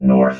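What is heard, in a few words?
"north", robot voice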